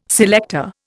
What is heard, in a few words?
"selector", Indian